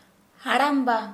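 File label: "grandfather", Santali, female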